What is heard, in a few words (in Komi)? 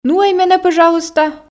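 нуӧй менӧ пӧжалуйста, Komi, female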